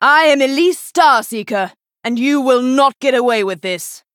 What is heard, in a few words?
female night elf greetings; Elise